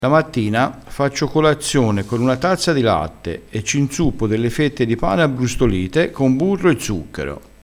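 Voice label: "abbrustolito", Italian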